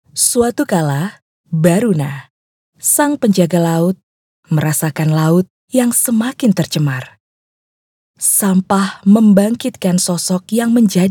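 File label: narration Indonesian; NARASI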